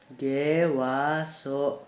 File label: "gewa-so", Gta, Dialect: Hill; abusive expression